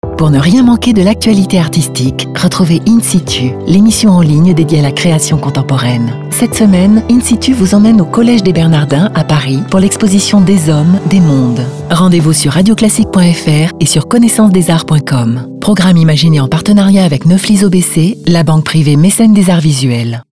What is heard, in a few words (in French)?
PUB radio classique posé elegant; In Situ